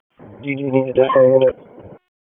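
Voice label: Raleigh Police Northeast District Dispatch, p25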